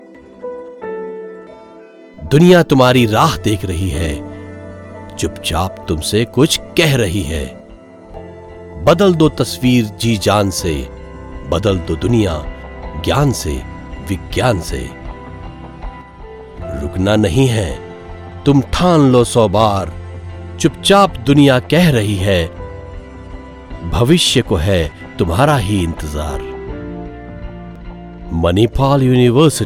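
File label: TVC hindi; manipal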